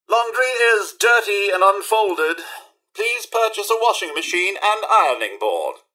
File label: idle chatter; mrhandy